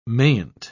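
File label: "mayn't", British English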